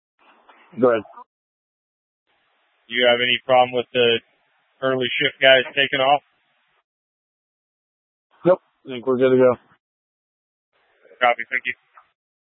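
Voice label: Incident Tac 3, Scanner audio recording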